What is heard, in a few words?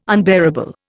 "unbearable", Indian